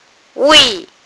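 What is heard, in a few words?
"wei4", Mandarin Chinese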